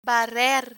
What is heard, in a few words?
"barrer", Spanish; verbs er; cleaning vocabulary